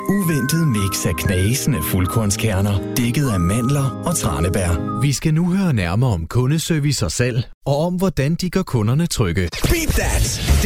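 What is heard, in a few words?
Danish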